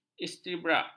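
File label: "istibrak", Malay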